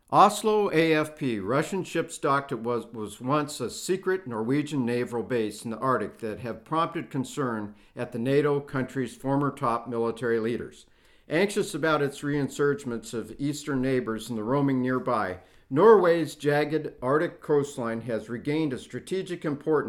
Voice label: narrator